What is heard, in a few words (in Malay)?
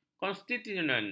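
"konstituen", Malay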